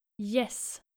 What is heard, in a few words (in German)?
"jess", nordische jungennamen